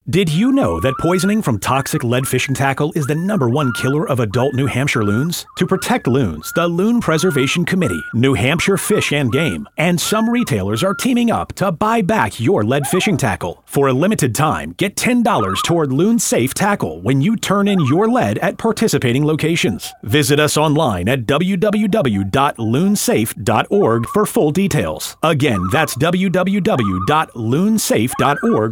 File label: Radio Ad